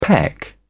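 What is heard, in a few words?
"peck", English, British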